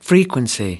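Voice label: "frequency", American English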